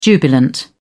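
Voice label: "jubilant", British English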